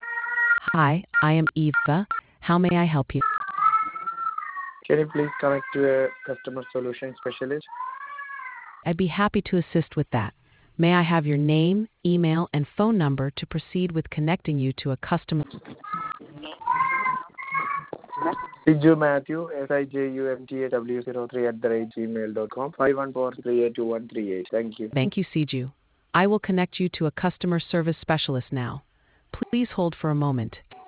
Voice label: conversation